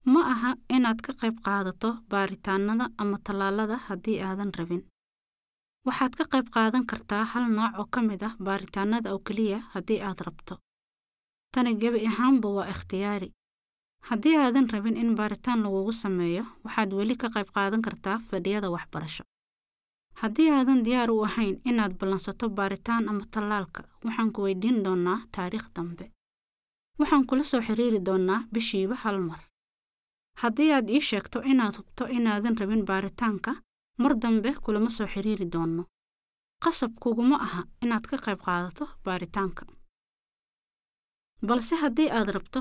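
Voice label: Somali, general; GoLocalise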